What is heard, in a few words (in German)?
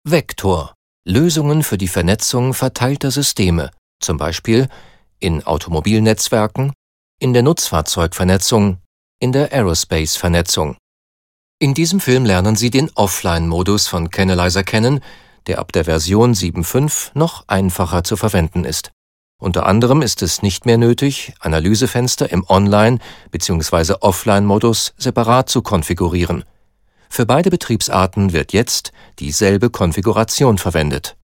germany male filmvertonung sprecher